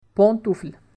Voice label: "pantoufle", French